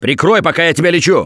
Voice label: Heal Other Combat, russian, Survivor Voice; Gambler